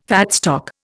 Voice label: "fat stock", Indian

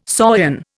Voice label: "saurian", Indian

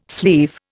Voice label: "sleeve", Indian

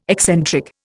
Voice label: "eccentric", Indian

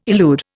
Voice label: "elude", Indian

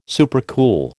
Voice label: "supercool", American

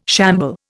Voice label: "shamble", Indian

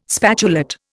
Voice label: "spatulate", Indian

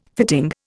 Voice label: "fitting", Indian

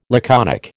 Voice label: "laconic", American

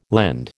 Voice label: "lend", American

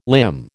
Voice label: "limn", American

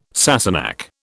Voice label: "Sassenach", British

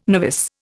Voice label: "novice", Indian

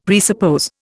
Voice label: "presuppose", Indian; Dictionary

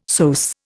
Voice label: "psoas", Indian; Dictionary

